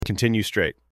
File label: Male Continue Straight